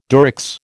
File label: "Durex", American